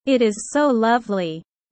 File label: low